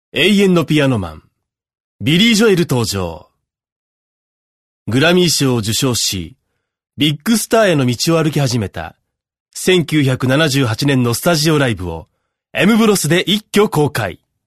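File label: narration male natural; ナチュラルな雰囲気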